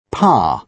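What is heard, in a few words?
"par", British English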